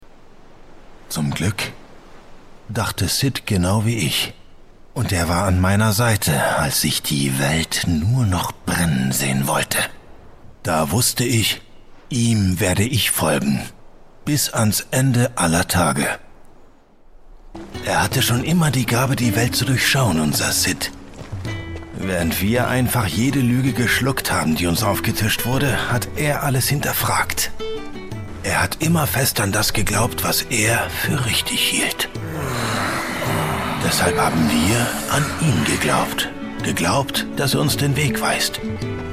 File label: german video games; Deutschsprecher aus Berlin